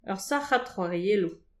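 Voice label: "ur sachad choariellou", Breton; petit precis de prononciation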